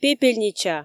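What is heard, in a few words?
"пепельнича", Komi, female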